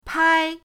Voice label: "pai1", pinyin

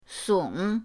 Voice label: "song3", pinyin; pinyindct-open

